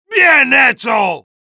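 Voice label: good job, Spanish; Heavy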